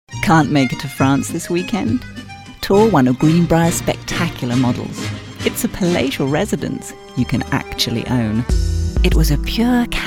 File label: Commercial, Voice Actor